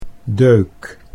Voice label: "deuk", Dutch